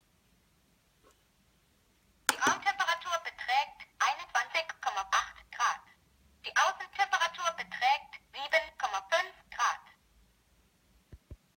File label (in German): sprechendes Thermometer